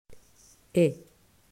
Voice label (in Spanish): pronunciacion fonema e; mausschool